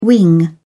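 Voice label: "wing", British English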